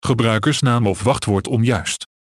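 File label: Username Or Password Incorrect, Dutch; OpenCore; AXEFIAudio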